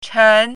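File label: "chen2", Mandarin Chinese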